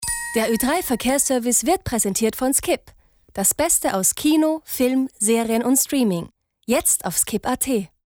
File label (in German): Ö3 Verkehrsservice